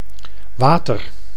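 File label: "water", Dutch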